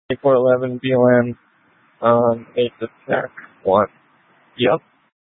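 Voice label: Incident Tactical 1, Scanner audio recording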